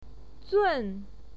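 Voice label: "zun4", Mandarin Chinese pinyin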